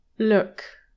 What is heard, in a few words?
"look", english pronunciation